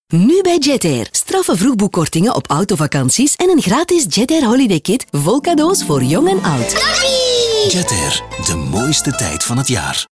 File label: Jetair Autovakanties Commercial